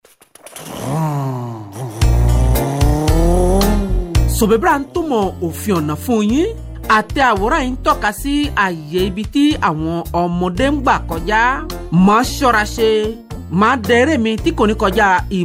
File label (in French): CODE DE LA ROUTE YORUBA